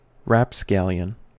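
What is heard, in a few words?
"rapscallion", US English; pronunciations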